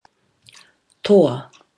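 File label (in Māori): "toa", Māori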